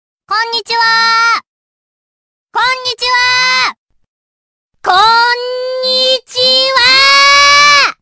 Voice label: SMS In Japanese; ringtone